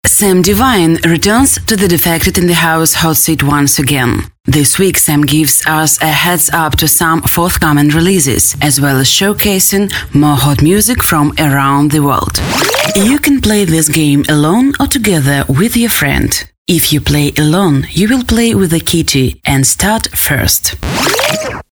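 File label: English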